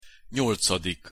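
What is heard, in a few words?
"nyolcadik", Hungarian pronunciation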